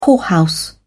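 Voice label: "whorehouse", British English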